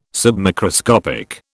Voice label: "submicroscopic", British